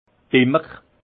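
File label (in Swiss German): "bemmer", alsace Haut-Rhin; vin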